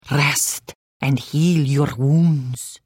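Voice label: Hello, Khajiit female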